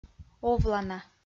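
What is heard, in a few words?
"овлана", Komi, female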